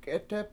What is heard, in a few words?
"k7Etep", washo